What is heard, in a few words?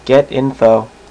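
"get info", male, voice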